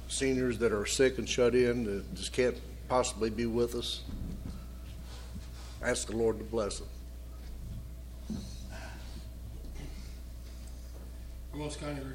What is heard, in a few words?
Broadcast Prayer